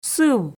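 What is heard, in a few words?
"сыв", Komi, female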